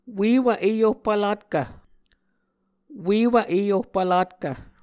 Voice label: "uewv eyohpvlatkv", Muscogee